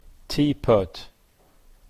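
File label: "teapot", Canadian English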